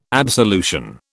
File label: "absolution", British